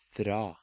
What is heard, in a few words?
"Fra", Norwegian